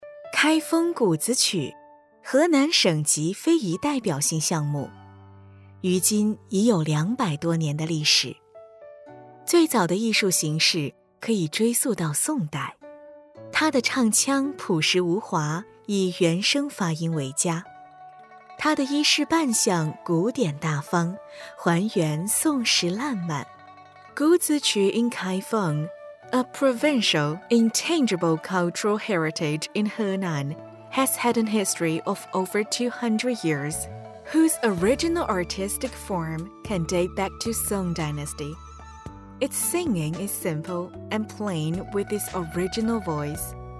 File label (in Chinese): 女 中英文样音 景区女声版